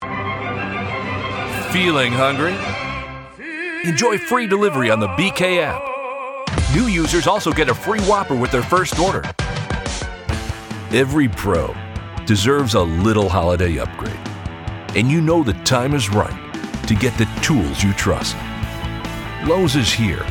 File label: Commercial Demo